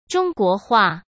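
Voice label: "中国画", Mandarin Chinese; hsk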